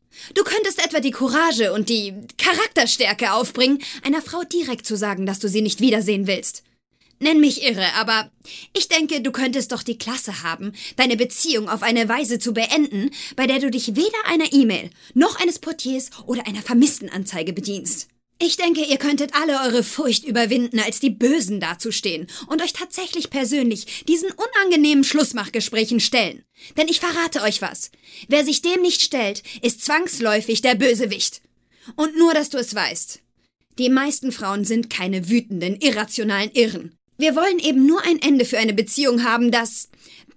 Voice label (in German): Comedy synchron